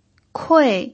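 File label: "kui", Mandarin Chinese pinyin, tone 4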